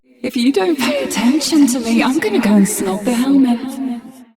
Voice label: Ambient Barks, en

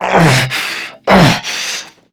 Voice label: Struggle Crewmen; Warframe; CorpusCrewmen; CrewmanA

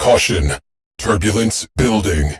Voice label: Combat Storm Gust Warn, Cephalon, en; Warframe; Railjack; Corpus

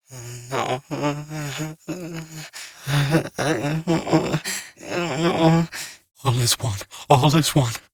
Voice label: Ostron Mumbling; Narmer Outpost; Chamber Archon Intro

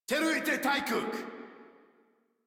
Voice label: Player Spotted Modular Corpus Male; Dialog; Barks; Battle; Warframe

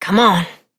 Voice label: Horse Start Adult; Duviri

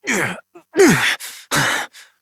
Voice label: Horse Mount Adult, Dialog; Duviri

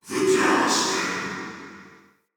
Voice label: Wandering Ghost Soldier Vocal Idle; Enemy; Vocals

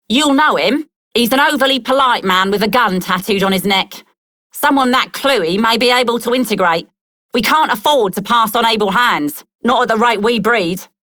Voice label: Female Rebel, en; Dialog; Warframe; Solaris Venus

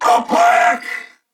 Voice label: Attack, Corpus Venus Male; Warframe; CorpusVenusMaleA

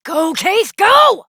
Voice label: Horse Sprint Adult; Duviri

